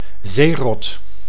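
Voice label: "zeerot", Dutch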